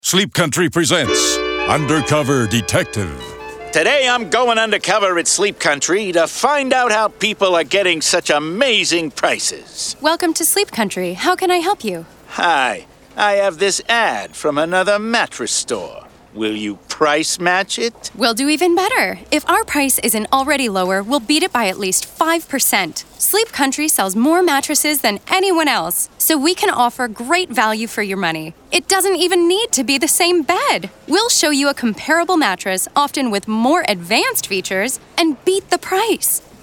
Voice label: Female Voice; Sleep Country; Undercover Detective